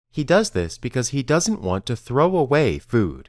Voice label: Dictation